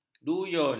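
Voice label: "duyun", Malay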